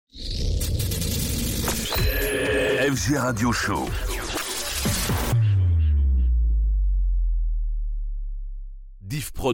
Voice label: Radio Show Sweep; FG

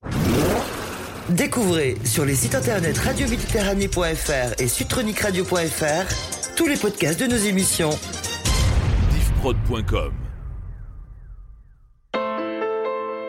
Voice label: Jingles CHR; Radio Mediterranee

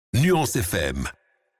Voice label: Drop ID; Nuance FM

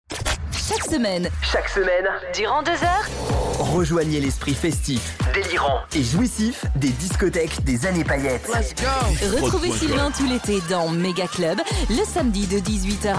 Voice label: Radio Promo; Big Inside; MegaClub

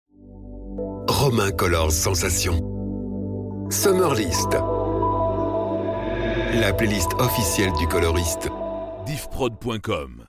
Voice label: Sweeper; Summer List

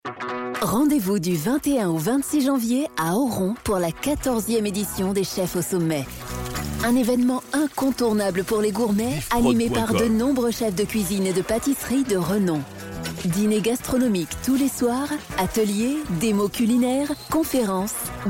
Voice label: Spot; 14eme edition Chefs au Sommet

